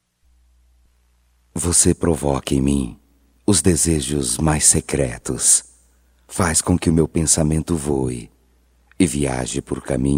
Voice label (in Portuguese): Erótica Masculino, Só Voz; Telemensagens; Telemensagens 2017